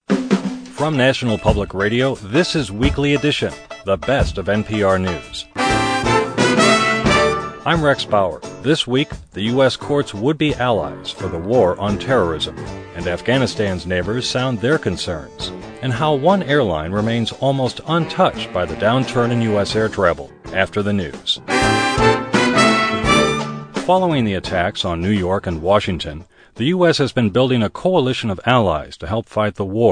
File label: voice over narration; Radio Host, National Public Radio